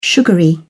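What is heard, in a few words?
"sugary", British English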